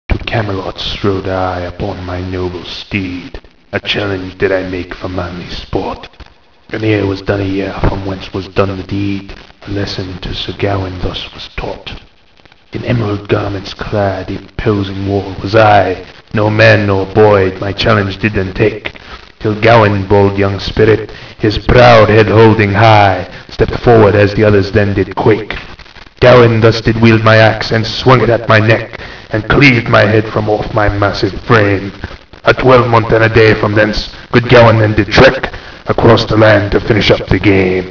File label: monolog